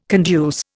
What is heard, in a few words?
"conduce", Indian